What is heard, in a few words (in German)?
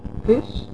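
"fisch", German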